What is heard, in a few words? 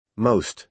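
"most", American English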